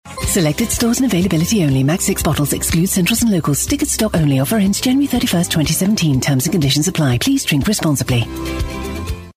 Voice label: speed read (disclaimer)